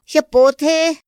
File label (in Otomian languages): xa pothe, Otomí; Días de la semana; INPI; INALI